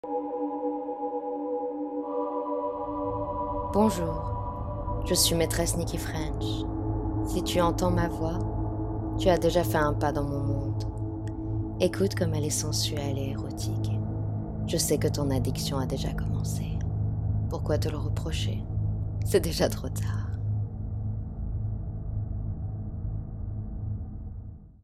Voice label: Sample of my voice français